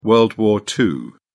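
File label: "world war ii", British English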